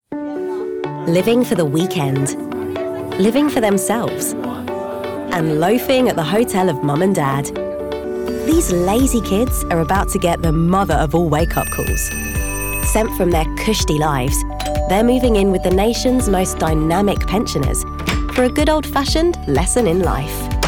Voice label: voiceover documentary